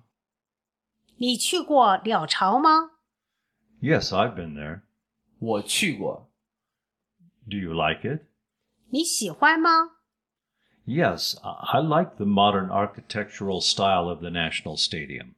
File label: Dialog